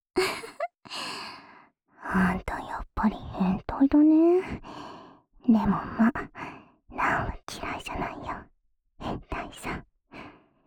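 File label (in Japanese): 罵り手コキ（右）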